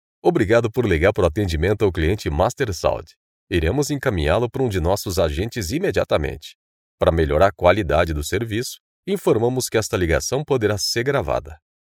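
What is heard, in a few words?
Portuguese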